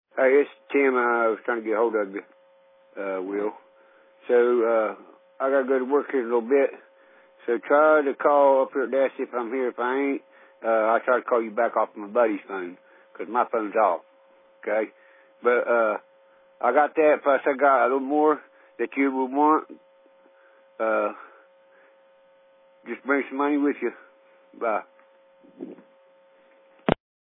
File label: Voicemail